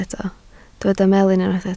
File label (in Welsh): "melyn" Welsh